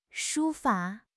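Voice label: "书法", Mandarin Chinese; HSK6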